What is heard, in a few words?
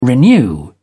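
"renew", English, British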